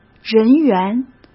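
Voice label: "人员" Mandarin Chinese; hsk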